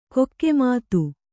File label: "ಕಾಕುಮತಿ", Kannada